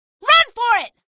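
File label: citizen run for it, female; source engine; episodic; episode 1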